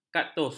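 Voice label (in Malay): "kaktus", Malay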